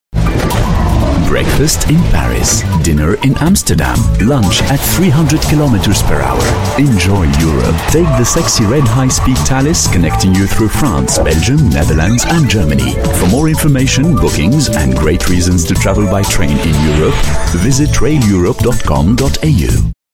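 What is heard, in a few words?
French English Male; RailEurope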